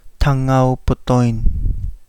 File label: Kapingamarangi; mangrove red snapper